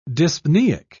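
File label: "dyspnoeic", British English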